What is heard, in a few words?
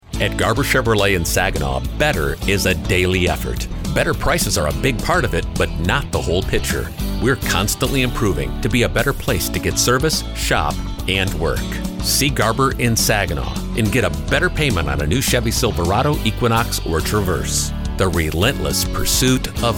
Radio; Garber Chevy Saginaw